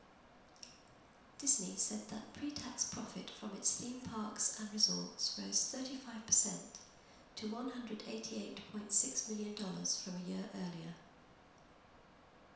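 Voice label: Sim Data room far female, reverberant environments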